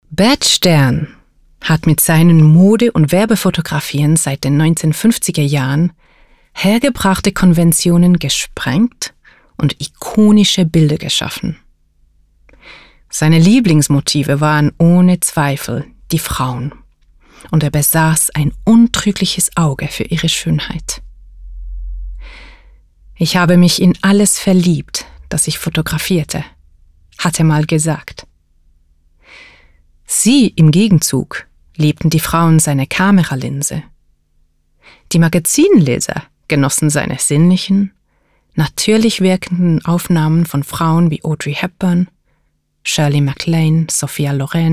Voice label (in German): DEUTSCH INTROVERT